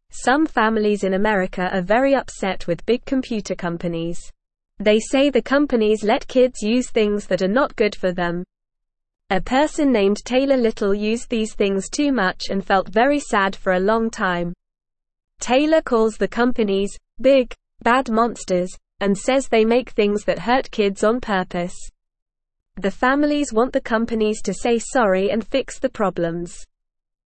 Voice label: English Beginner NORMAL Reading

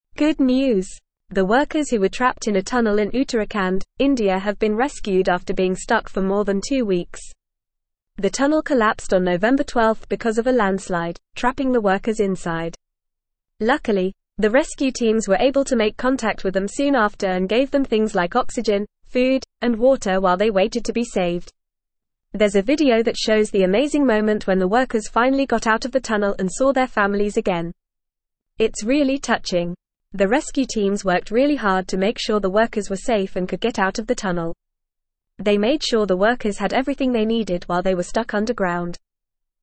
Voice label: Upper Intermediate FAST Reading, English

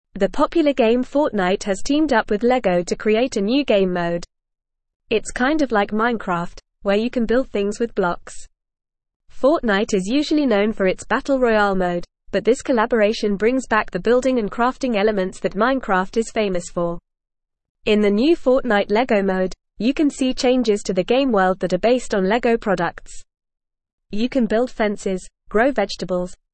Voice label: Upper Intermediate FAST Reading, English; English Newsroom

